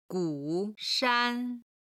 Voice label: "gu3 shan1", Chinese

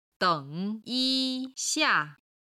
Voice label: "deng3yi1xia4", Chinese

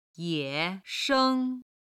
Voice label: "ye3 sheng1", Chinese

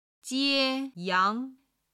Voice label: "jie1 yang2", Chinese

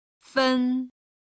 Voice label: "fen1", Chinese

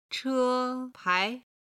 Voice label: "chepai", chinese

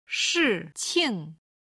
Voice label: "shi4qing5", chinese

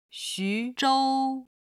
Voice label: "xu2zhou1", chinese

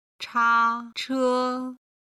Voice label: "chache", chinese

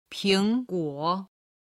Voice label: "ping guo", Chinese

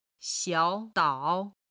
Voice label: "xiao3 dao3", Chinese

